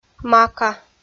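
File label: "мака" Komi, female; kpv audio dict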